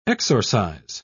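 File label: "exorcize", British English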